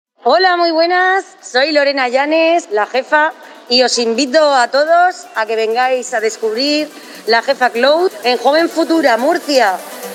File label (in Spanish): Anuncio Radio